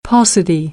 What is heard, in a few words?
"paucity", American English; ɑː - ɔː